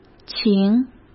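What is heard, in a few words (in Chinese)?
"情", Mandarin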